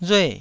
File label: "rue4", taiwanese syllable